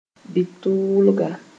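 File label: "bɩt-tʋʋlga", Mooré; short cycle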